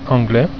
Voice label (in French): "anglais", French